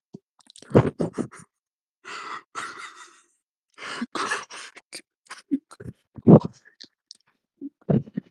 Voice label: voice message